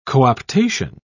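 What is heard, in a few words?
"co-optation", English, British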